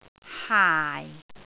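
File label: "haai4", Cantonese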